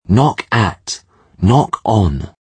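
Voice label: "knock at knock on", English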